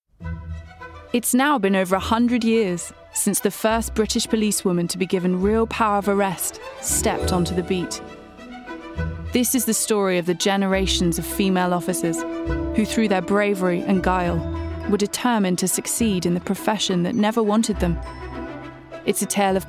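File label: documentary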